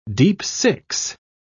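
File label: "deep-six", British English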